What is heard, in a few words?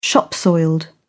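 "shop soiled", British English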